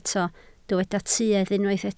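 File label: "tuedd" Welsh, spoken word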